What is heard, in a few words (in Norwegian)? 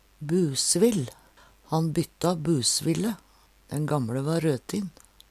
"busvill", Numedalsmål